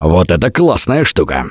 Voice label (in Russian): take melee, left4dead2 russian, Survivor Voice; Gambler